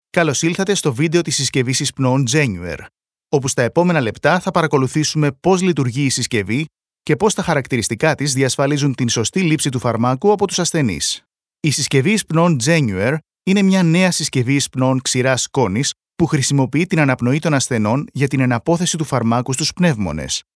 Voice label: greek training e-learning

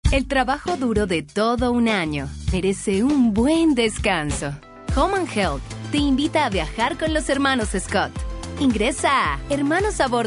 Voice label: Spanish Latin American TV shows

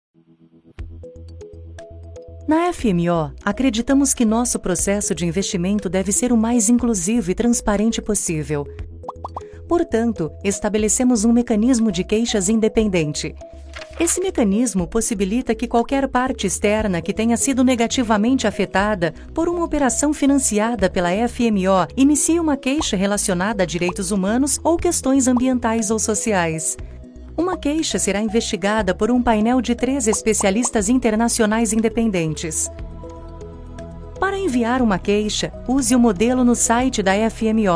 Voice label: Portuguese Brazilian documentaries; voice actor

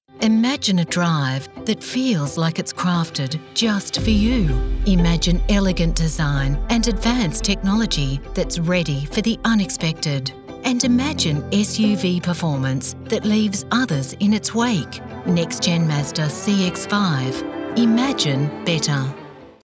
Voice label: english australian automotive

